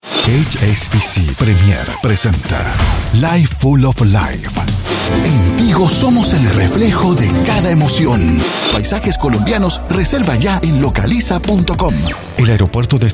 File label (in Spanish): Locutor Reel Neutro